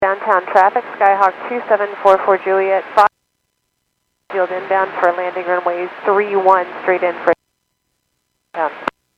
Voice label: Airports 122.7, Radio; KSTE

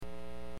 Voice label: Aviation 121.5 Emergency

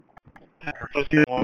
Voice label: Police Sheriff Dispatch

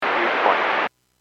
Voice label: Aviation 122.7; KSTE

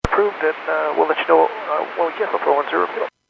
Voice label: Airports 122.7, Radio; KSTE

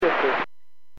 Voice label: Aviation 121.5 Emergency

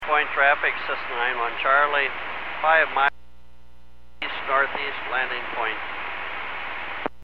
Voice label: Aviation 122.7; KSTE

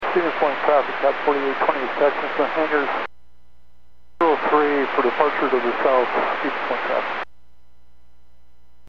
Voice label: Aviation 122.7; KSTE

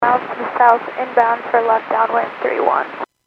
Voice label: Aviation 122.7; KSTE

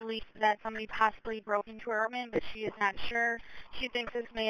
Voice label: Police Sheriff Dispatch

